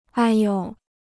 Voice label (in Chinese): "哎哟", Chinese